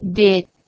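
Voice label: "dheh1", Proto-Indo-European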